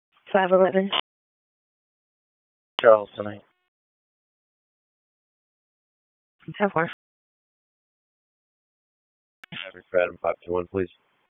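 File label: Police Southeast District Dispatch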